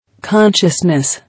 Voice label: "Consciousness", English